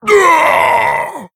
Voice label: Male Orc Death